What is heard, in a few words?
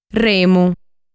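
"Remu", Sardinian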